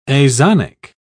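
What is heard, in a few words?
"azonic", British English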